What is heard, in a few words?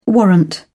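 "warrant", British English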